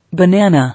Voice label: "Banana", English